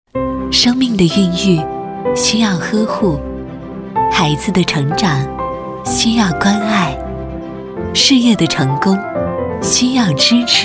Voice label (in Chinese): 广告 医院医药 维生素D钙软胶囊 亲切; 女; 品牌尤妮美